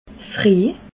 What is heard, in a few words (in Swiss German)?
"reh", Alsace